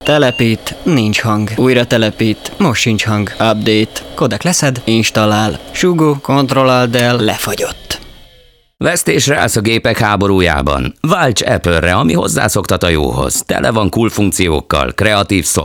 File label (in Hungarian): hirdetes